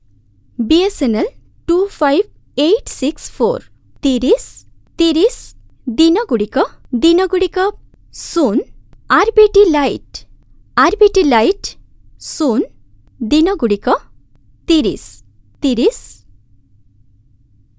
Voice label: FEMALE IVR